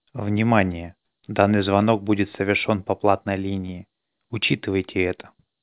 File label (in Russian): Звонок по платной линии